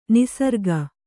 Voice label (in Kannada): "ನಿಸರ್ಗ", kannada